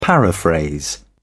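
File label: "paraphrase", British English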